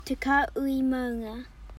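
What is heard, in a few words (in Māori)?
"te kahui maunga", Māori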